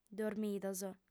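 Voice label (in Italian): "dormidos", lingua sarda, female; Dados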